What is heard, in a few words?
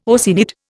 "Oceanid", Indian